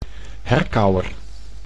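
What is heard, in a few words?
"herkauwer", Dutch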